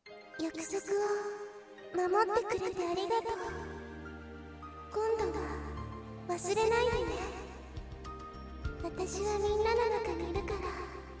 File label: angle girl